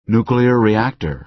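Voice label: "nuclear reactor", American English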